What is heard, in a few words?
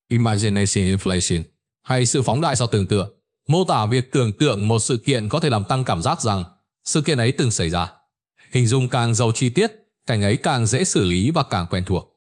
narration